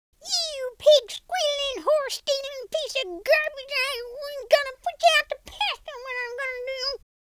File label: angry, speech, Cowgirl